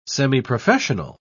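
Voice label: "semiprofessional", English, British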